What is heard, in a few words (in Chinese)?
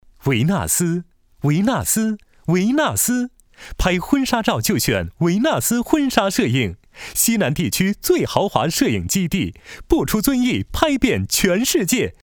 男 促销广告; 维纳斯